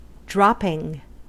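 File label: "dropping", US English; pronunciation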